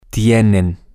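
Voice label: they have, Spanish, male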